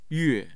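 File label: "yue4", Mandarin Chinese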